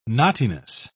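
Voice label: "knottiness", English, British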